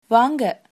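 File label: welcome, Tamil, female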